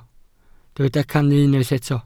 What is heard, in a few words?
"cannu", Welsh